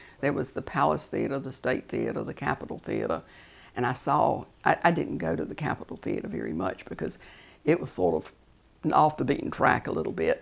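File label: Older Raleigh Speaker, Tar Heel dialects; North Carolina; quiz voices of north carolina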